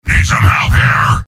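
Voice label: help me, robot; soldier; mvm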